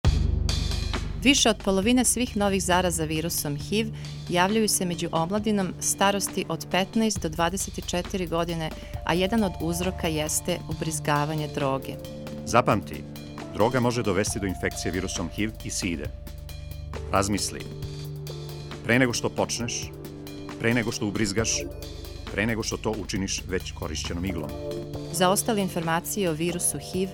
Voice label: spot serbian music